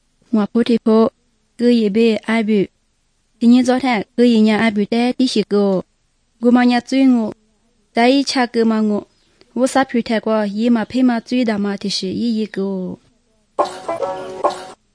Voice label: good news lisu southern, drama; bible